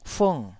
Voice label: "fung4", Taiwanese syllable